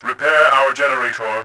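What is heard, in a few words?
repair generator, voice, Bot; Tribes2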